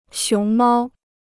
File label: "熊猫", Chinese